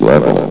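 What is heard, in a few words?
"level", male synthesized announcer voice; blizzard; hlvox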